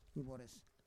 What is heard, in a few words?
"ivores", Roon